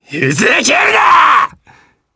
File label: Vox Skill jp; Ezekiel; kingsraid